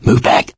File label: backup quiet, survivor voice mechanic; source engine; left 4 dead 2